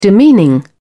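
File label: "demeaning", British English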